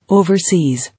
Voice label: "Overseas", English